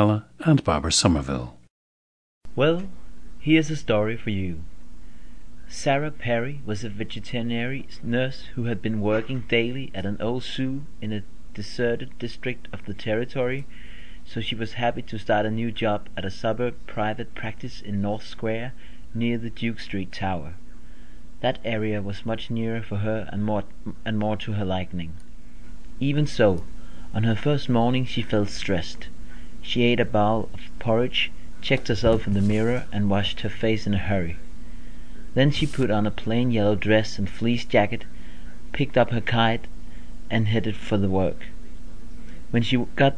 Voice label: denmark, dialects

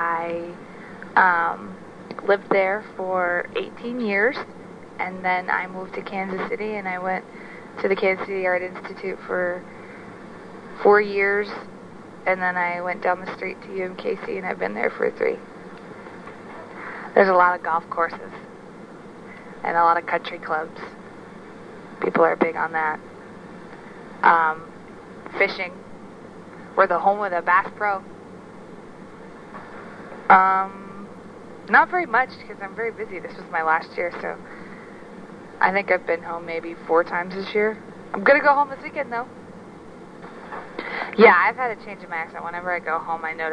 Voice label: missouri, dialects

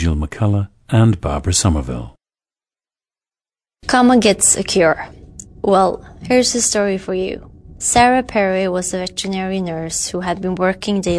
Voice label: norway, dialects

